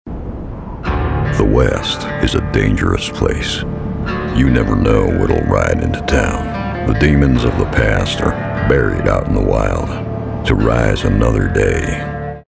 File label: Audition for Dark Western Video Game